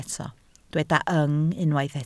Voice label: "yng", Welsh